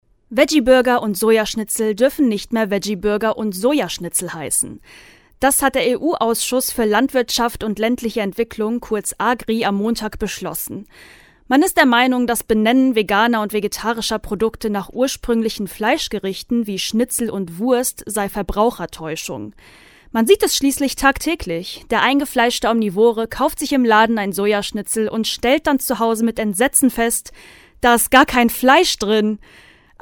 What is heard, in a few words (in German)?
Kommentar; Veggiewurstverbot